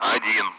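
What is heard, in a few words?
Speech Sound Russian Common Allied Flight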